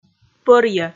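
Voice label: "пӧрйӧ", Komi, female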